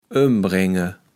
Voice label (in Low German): "ömbrenge", kaevels platt